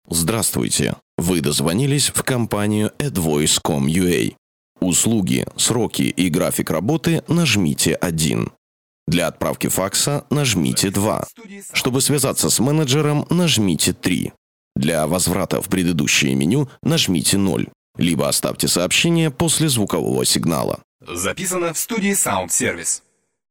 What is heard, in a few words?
ivr